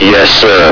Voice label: "yessir", militant